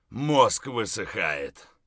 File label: dehydrated; Escape from Tarkov